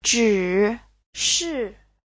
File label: "zhi3shi4", chinese